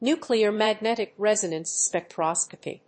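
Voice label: "Nuclear magnetic resonance spectroscopy", English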